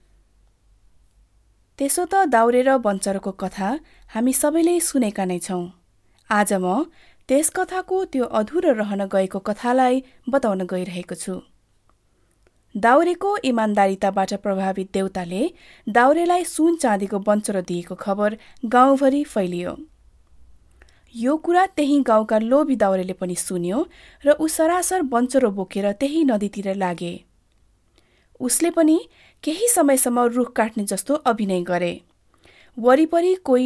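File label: Nepali, General; GoLocalise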